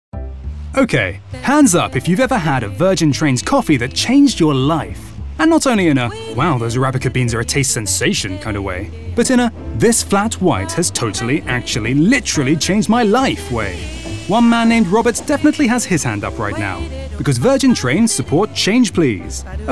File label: Commercial Reel